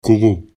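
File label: "kogo", Bambara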